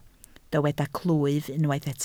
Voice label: "clwyf", Welsh